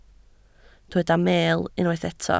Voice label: "meel", Welsh